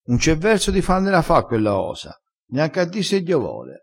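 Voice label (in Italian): "neanc a di se", dialettale crespinese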